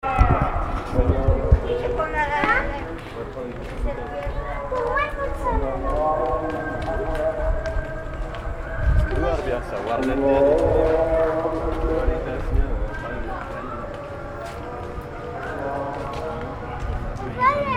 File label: voices in street, Indonesia street recordings